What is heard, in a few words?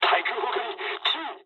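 damaged aa mood low, speech japanese; War Thunder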